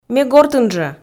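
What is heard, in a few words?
Komi sentences, female; ме гортын жӧ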